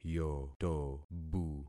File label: "yotobu", concat syllables; Affixing experiment